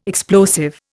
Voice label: "explosive", Indian